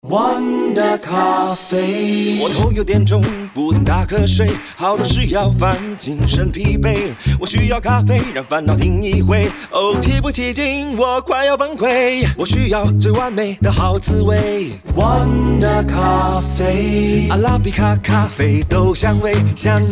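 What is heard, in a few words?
Thematic Radio Ad Mandarin; MCCANN; WONDA COFFEE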